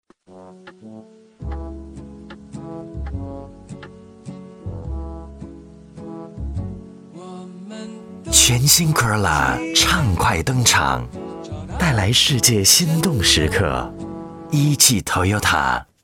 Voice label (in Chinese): 男 汽车广告, 国语男声配音; 丰田汽车-CROLA